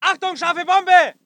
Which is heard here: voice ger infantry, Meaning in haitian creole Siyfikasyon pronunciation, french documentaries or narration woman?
voice ger infantry